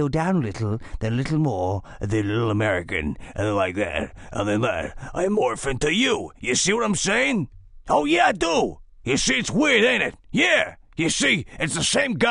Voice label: Voice Morphing; Black and White; BW Outtakes